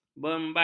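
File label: "bemban", Malay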